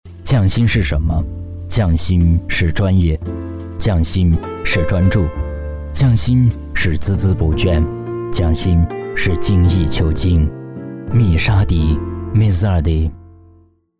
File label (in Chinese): 男43 广告; 米纱迪